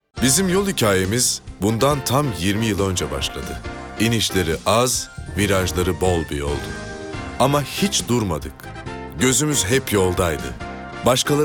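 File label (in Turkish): sicak tanitim, seslendirme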